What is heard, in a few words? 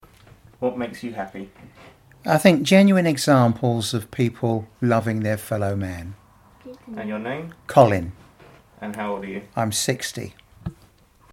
60 years old letchworth uk; soundof100